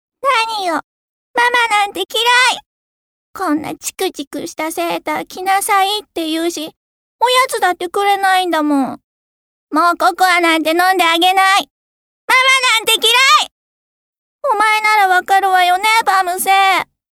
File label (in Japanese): 少女